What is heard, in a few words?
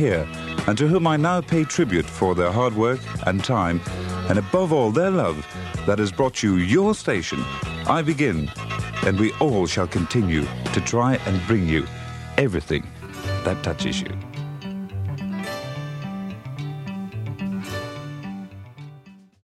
Radio Victory Launch